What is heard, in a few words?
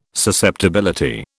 "susceptibility", British